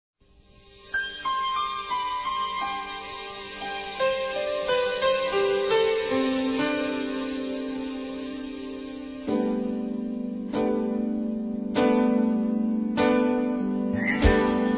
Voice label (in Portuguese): Aniversário Mãe Infantil Feminino; Telemensagens